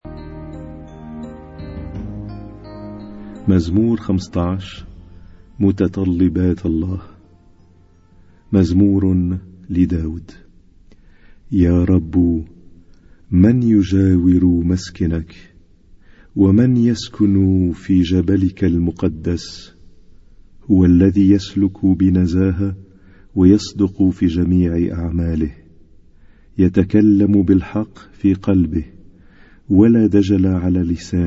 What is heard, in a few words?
Arabic Psalm Zaboor